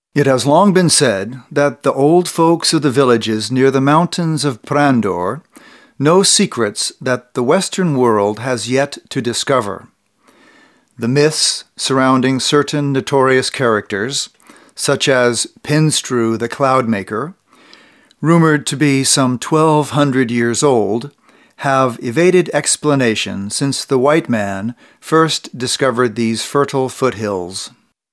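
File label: male English documentary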